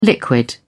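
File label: "liquid", British English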